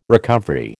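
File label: "recovery", American English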